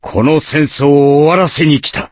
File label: voice, dubbing